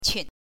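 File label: "qun", Mandarin Chinese, neutral tone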